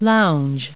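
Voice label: "lounge", English; verb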